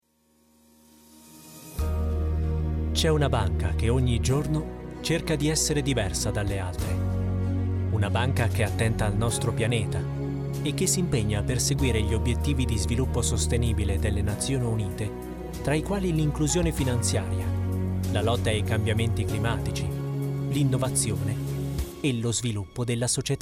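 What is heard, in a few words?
corporativo, Italian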